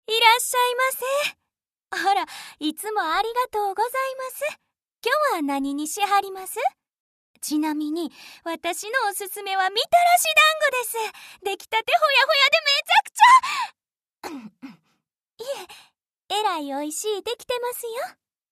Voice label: Kansai dialect gentle woman